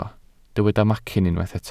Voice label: "macyn", welsh

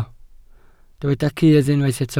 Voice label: "cudd", Welsh

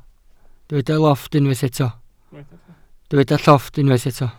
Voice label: "llofft", welsh

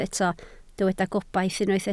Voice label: "gobaith", Welsh

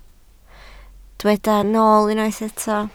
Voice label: "nool", Welsh

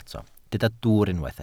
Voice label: "dwwr", Welsh

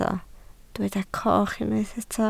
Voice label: "coch", Welsh

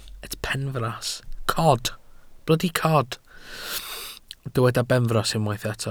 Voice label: "benfras", Welsh

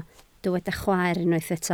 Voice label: "chwaer", Welsh

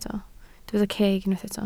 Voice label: "ceg", Welsh

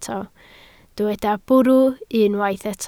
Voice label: "bwrw", Welsh

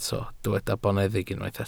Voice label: "boneddig", welsh

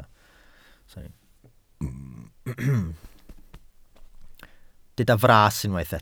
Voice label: "fras", Welsh

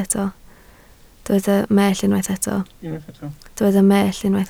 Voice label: "mellt", Welsh

